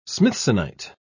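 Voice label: "smithsonite", British English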